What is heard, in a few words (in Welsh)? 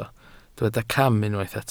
"cam", Welsh